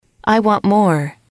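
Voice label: i want more, English, female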